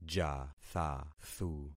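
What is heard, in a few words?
"jathathu", concatenated syllables; Affixing experiment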